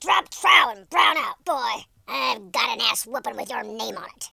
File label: just you wait, War General